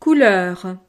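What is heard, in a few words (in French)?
"couleur", French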